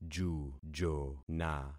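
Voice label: "jujona", concatenated syllables; Affixing experiment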